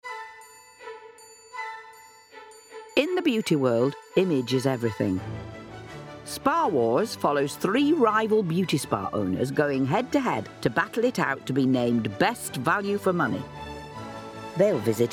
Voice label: VO Reel Doc WITH SFX; Spa Wars

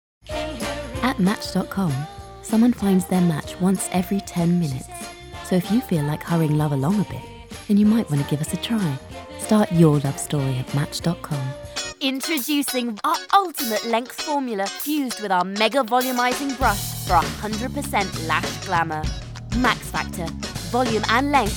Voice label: Commercial Reel

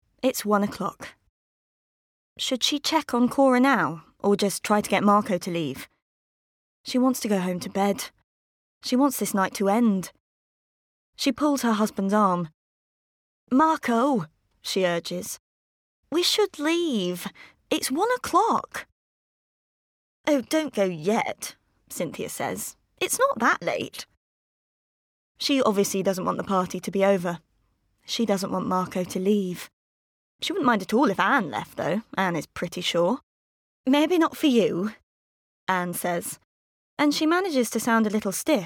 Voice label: Narrative

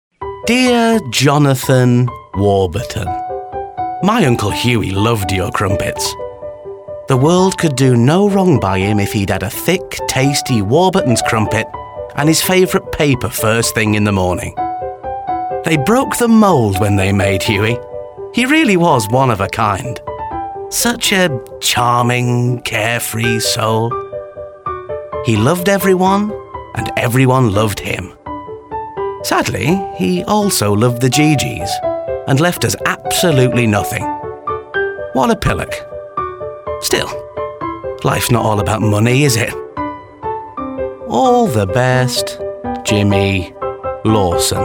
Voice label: natural

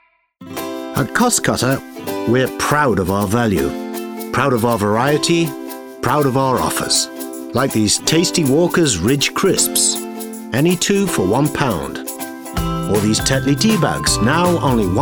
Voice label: Strong, gritty, grounded and assured; Costcutter

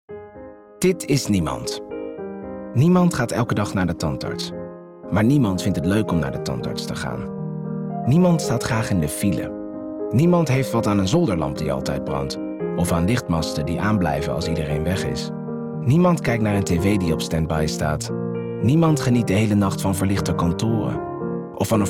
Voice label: Dutch commercial

